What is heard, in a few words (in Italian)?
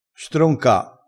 "stronca", crespinese